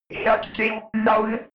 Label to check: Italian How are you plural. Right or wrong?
Wrong